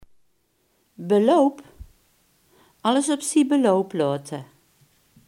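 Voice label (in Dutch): "beloop" Limburgish dialect word; beloop, beloup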